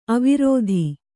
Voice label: "ಅವಿರೋಧ", Kannada